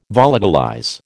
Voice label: "volatilize", American